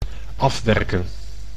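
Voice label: "afwerken", Dutch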